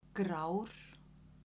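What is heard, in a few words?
"grár", Icelandic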